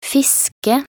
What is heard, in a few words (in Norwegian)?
"fiske", nb_NO